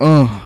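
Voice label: "uh", male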